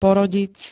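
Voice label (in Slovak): "porodiť", Slovak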